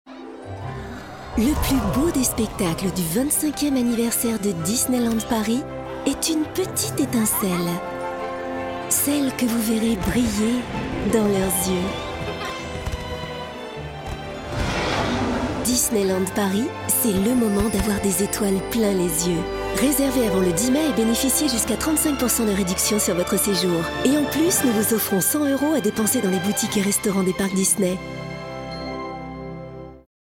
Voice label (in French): enthousiaste bienveillante; Disneyland Paris